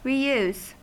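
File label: "reuse", US English; pronunciations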